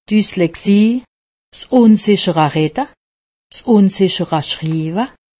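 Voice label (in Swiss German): "dyslexie", Haut Rhin; medecine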